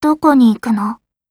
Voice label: with you, voice commands; svencoop; Blanc